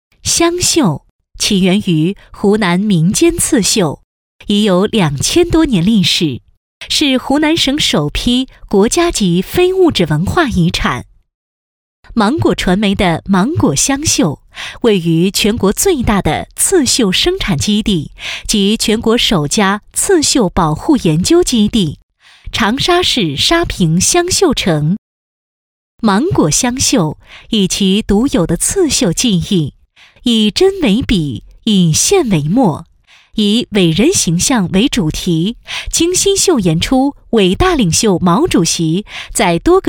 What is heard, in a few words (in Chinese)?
纪录片 人文历史; 湘绣